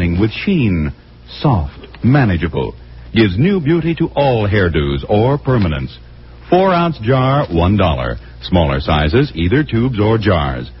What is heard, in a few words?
vintage commercials cream; luster